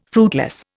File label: "fruitless", Indian